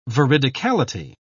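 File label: "veridicality", British English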